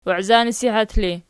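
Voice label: "waizanasihat" ergative, Archi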